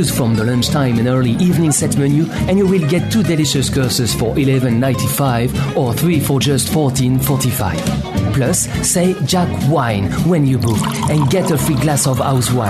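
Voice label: French, male, English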